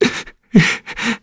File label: Sad, kr, voices; heroes; Theo; kings raid